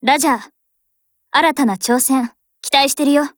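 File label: FORMATION Japanese; Grizzly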